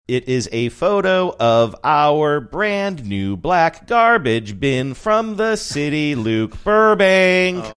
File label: saying it is a photo of our brand new black garbage bin funny sing songy